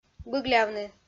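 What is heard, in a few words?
"быглявны" Komi, female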